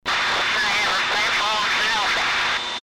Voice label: battle radio communication